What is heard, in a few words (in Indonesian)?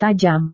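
"tajam", Indonesian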